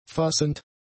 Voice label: "fassend", German; adjectives

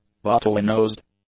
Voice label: "bottlenosed", English; adjectives

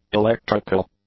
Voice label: "electrical", English; adjectives

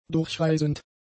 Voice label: "durchreisend", german; adjectives

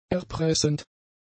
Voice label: "erpressend", German; adjectives

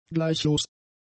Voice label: "gleichlos", German; adjectives

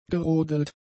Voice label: "gerodelt", German; adjectives

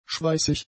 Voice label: "schweißig", German; adjectives

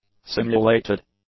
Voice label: "simulated", English; adjectives

